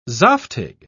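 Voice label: "zaftig", British English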